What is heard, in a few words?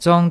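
"zong1", Cantonese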